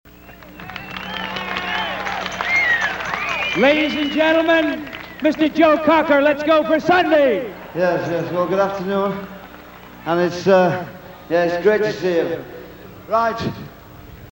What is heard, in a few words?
Announcement; 1969 - Woodstock